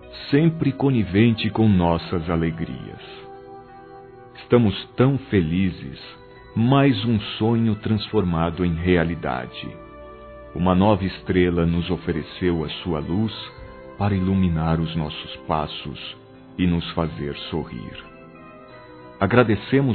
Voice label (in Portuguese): AGRADECENDO VISITA M